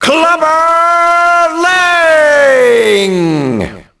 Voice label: announce, ENGLISH; Rocky; XBOX

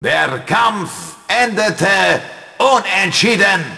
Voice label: Announce draw, GERMAN; Rocky Legends; XBOX

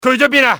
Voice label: disappeared, enemy cantonese male; XBOX

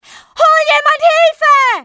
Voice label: get help, civ fmale; XBOX

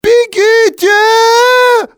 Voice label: "run", male; XBOX; civ

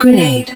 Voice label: hud grenade launcher, english; Battle Engine Aquila; XBOX

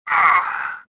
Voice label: choke; swamp

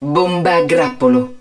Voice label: hud spread bomb, Italian; Battle Engine Aquila; XBOX

